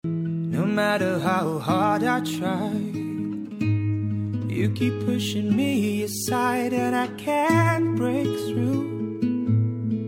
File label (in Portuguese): iniicio masc, suave voz masculina; Dia dos Namorados